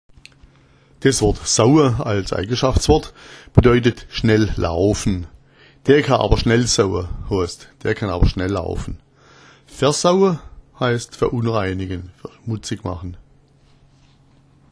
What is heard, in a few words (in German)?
saua, schwaebisch; Das Wort saua als Eigenschaftswort bedeutet schnell laufen.; Der ka aber schnell saua = der kann aber schnell laufen.